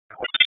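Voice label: Police Northeast District Disp, radio; Raleigh; p25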